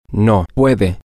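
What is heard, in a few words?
you can no, Spanish, male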